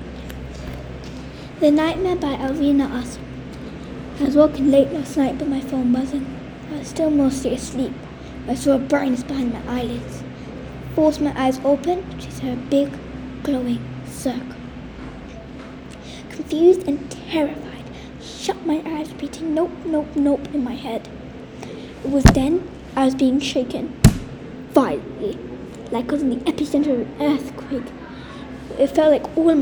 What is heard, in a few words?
Audiobook